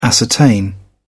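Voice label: "ascertain", British English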